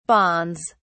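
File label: "bonds", British English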